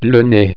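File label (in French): "nez", French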